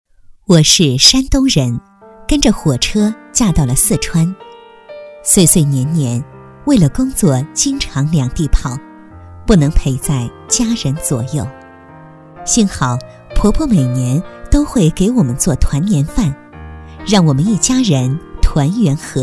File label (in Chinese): 女-专题; 婆婆做的团年饭